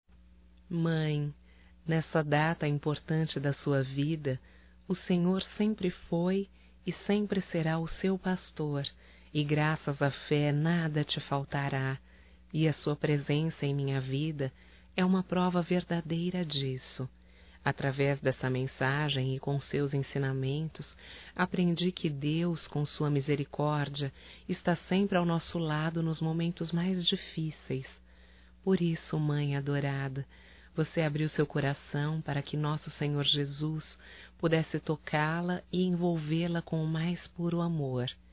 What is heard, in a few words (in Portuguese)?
Aniversário Evangélico Feminino, Telemensagens; Aniversarios; Telemensagens 2017